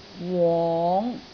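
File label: "wong5", Cantonese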